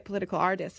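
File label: "political artist", American English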